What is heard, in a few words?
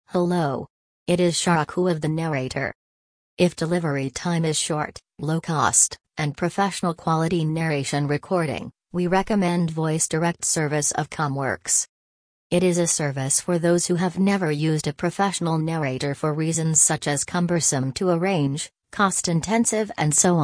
speech eng F